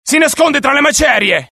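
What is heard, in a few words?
alert, Italian; mohaa; dialogue; sighted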